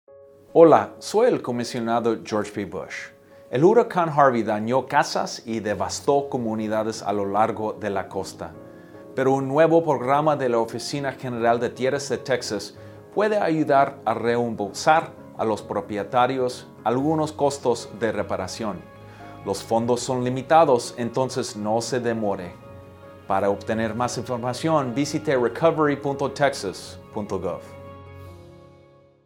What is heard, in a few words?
spanish